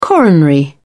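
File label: "coronary", British English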